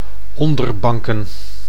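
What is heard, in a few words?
"Onderbanken", Dutch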